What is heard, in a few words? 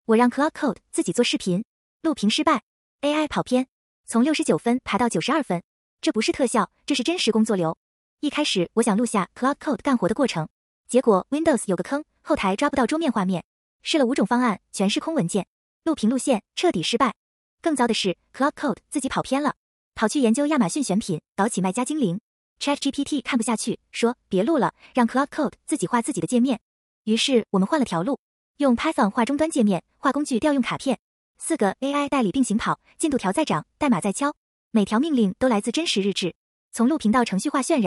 voiceover